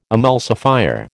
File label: "emulsifier", American